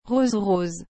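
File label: "rose rose", French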